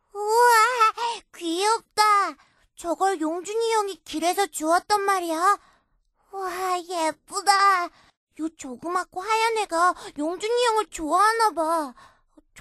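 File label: Korean, female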